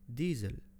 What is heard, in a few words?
"diesel", arabic